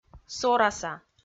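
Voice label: "сораса", Komi, female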